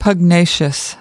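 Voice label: "pugnacious", US English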